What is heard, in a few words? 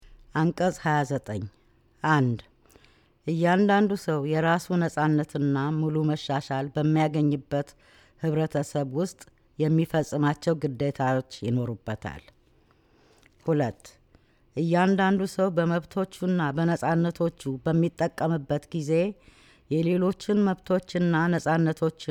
Amharic